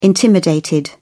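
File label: "intimidated", British English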